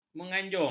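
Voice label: "menganjung", Malay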